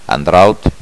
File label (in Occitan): "andraut", gasconha